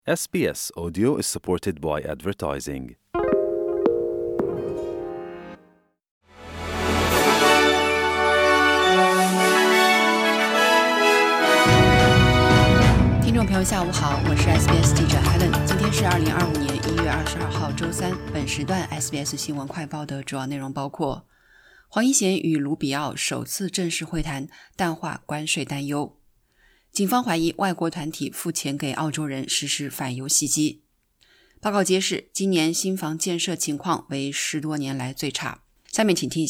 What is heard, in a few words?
mandarin newsflash; SBS Chinese; 【SBS新闻快报】黄英贤与卢比奥首次正式会谈 淡化关税担忧; 2025年1月22日下午：外交部长黄英贤（Penny Wong）与新任美国国务卿卢比奥（Marco Rubio）举行了首次一对一会谈（收听播客，了解详情）。